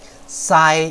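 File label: "sai1", Chinese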